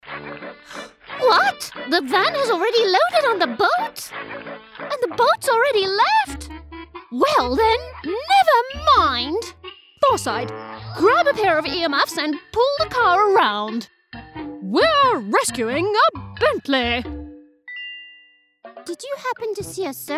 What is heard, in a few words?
english british animation